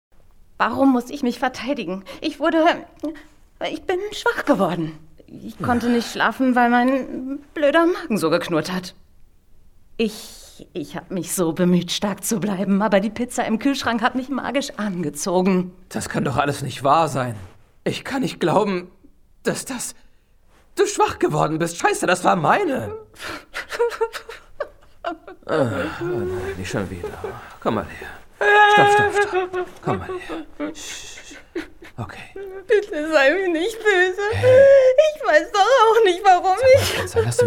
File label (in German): Comedy